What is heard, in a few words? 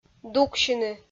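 "дуксьыны", Komi, female; kpv audio dict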